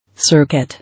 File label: "Circuit", English